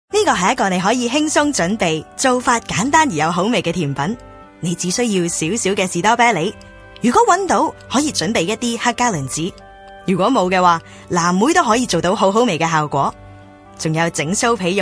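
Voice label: Canto narration, Cantonese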